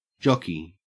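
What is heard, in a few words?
"jockey", Australian English; pronunciations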